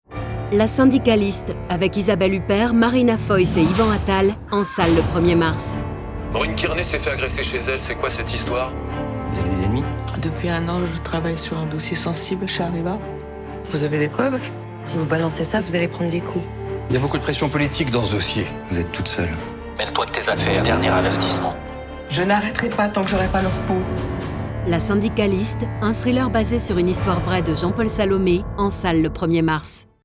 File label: french movie trailers; professional French voice actress and singer